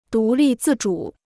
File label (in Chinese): "独立自主", Chinese